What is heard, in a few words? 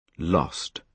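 "lost", British English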